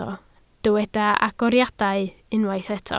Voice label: "agoriadau", Welsh